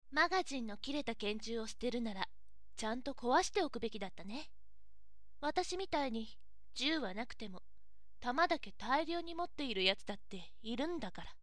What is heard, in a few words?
voice onnna